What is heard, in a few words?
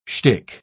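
"schtick", British English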